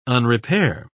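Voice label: "unrepair", British English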